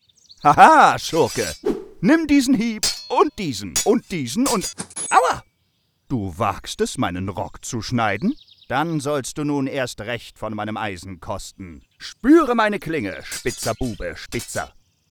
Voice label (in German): Charakter Komisch Fechtmeister